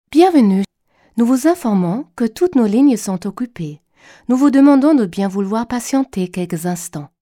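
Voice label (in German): französisch, Telefonansagen, weiblich; Sprecherin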